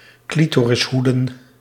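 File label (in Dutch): "clitorishoeden", Dutch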